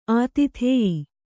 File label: "ಆತಿಥೇಯಿ", Kannada